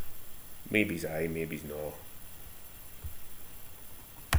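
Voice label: "maybees", slang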